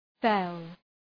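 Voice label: "fell", English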